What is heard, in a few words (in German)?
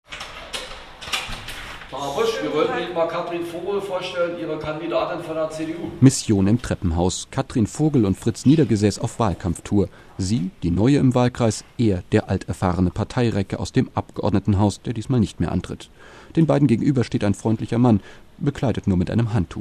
Radiospots; wahlserie; Altglienicke